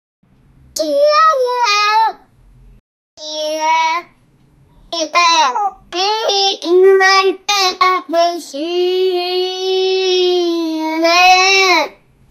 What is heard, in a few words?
kid saying bye bye; child